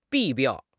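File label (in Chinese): "弊病", Chinese; HSK6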